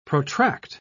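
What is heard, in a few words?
"protract", British English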